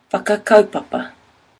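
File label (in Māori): "whakakaupapa planning", Māori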